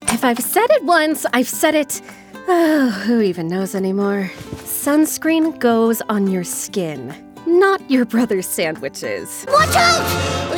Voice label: Animation